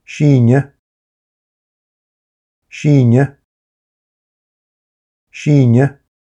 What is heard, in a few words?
"Sine", irish names for girls